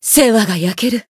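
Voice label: heal, Japanese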